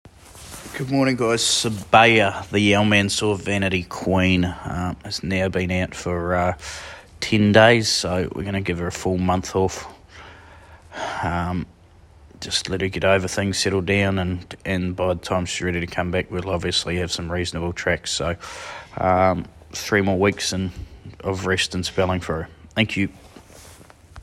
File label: NZ voice note